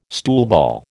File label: "stoolball", American